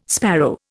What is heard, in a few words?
"sparrow", Indian